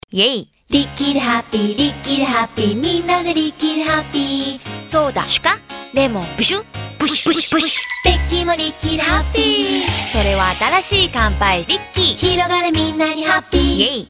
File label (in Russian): Японская реклама; Рикки хаппи